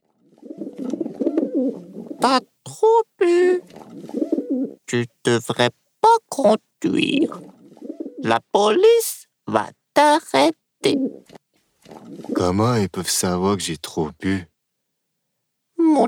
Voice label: spot; buxi